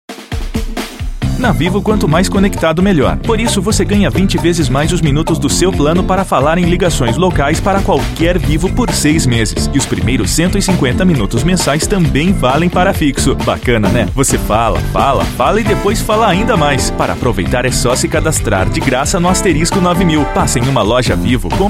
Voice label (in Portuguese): spot; vivo 20 vezes mais